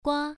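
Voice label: "gua", Mandarin Chinese, first tone, pronunciation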